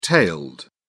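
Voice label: "tailed", British English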